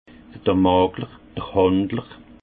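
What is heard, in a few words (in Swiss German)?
"makler", Alsace Haut Rhin; vin; courtier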